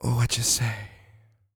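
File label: female spoken, R&B Dance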